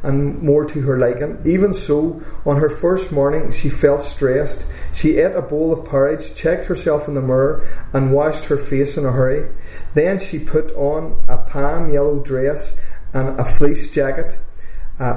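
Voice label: passage, Irish English, The North of Ireland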